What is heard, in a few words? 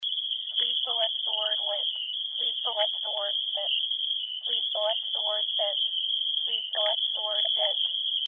vocoder audio